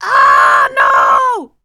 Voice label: Panic; zps; Humans; HumanLea